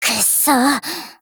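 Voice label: LOW MOOD, Japanese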